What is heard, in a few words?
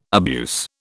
"abuse", British